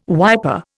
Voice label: "wiper", Indian

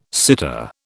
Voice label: "sitter", British

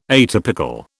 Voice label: "atypical", British

